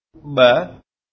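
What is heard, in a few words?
"buh", Sindhi, Pakistan, male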